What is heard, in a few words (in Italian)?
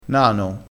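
"nano", dialettale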